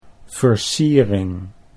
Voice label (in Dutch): "versiering", Dutch